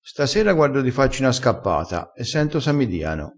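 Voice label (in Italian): "diano", dialettale crespinese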